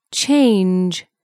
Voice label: "change", English

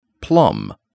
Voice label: "plum", English

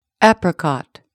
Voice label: "apricot", English

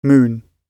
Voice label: "moon", English

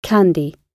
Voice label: "candy", English

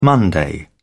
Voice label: "Monday", English

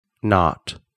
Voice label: "not", English

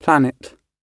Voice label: "planet", English

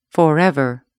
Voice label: "forever", English

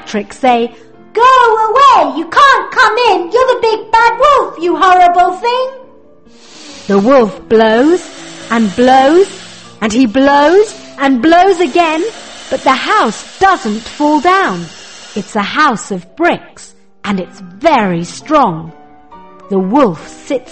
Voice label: The three little Pigs, English

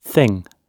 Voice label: "thing", English

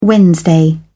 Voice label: "Wednesday", English

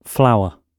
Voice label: "flower", English

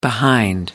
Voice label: "behind", English

